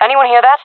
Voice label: heard sound, fem; player vo jungle fem